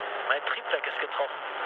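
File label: German damaged engine mood low, speech; War Thunder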